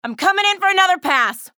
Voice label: chatter neutral; athena